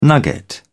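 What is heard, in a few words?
"nugget", British English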